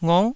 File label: "ngok9", taiwanese syllables